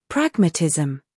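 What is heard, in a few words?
"scandal", American; pragmatism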